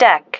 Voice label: "deck", female